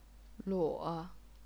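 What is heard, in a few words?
"luo", third tone, chinese pinyin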